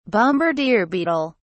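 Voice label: "bombardier beetle", British English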